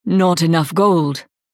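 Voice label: Hero Insufficient Funds US English, Женщина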